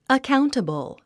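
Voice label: "accountable", English word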